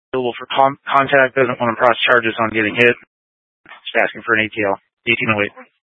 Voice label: Scottsdale Police, Simulcast

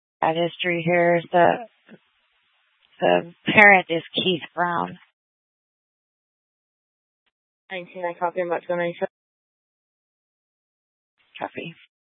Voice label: Mesa PD Dispatch

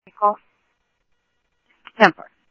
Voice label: Police, scanner audio trunk; H-Scottsdale

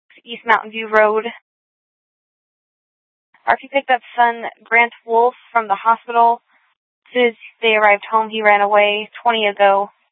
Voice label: Dispatch; Scottsdale

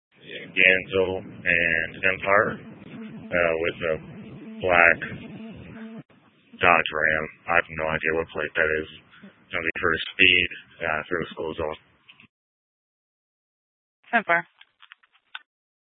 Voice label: Sheriffs Office, scanner

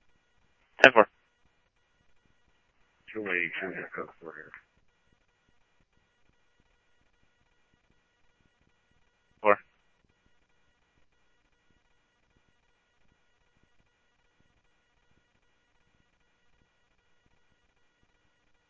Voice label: PD Police, scanner audio

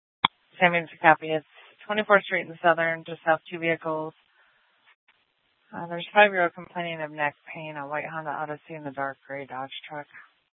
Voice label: Police Dispatch

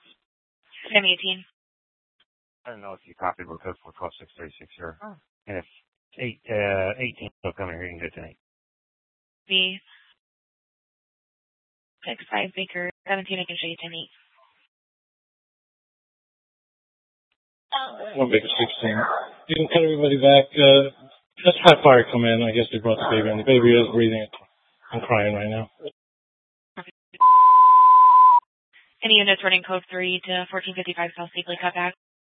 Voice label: Mesa PD Fiesta Dispatch

